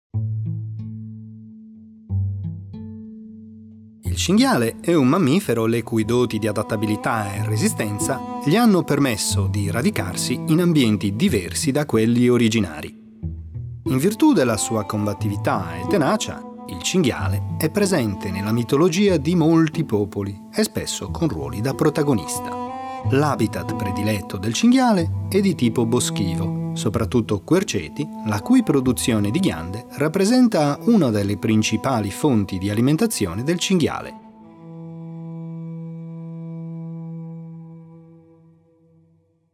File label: documentary, italian